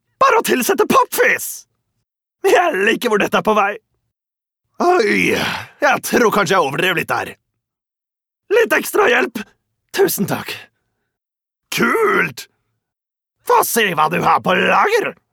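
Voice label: Norwegian crazy pirate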